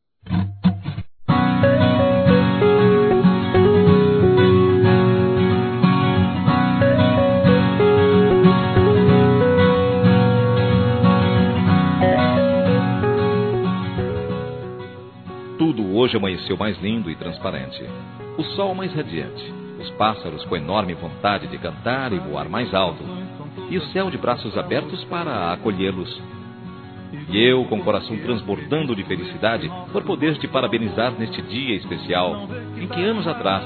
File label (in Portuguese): FELIZ ANIVERSARIO M